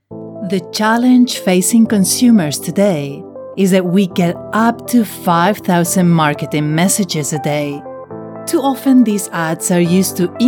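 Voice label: voice over English corporate commercial enthusiastic empowering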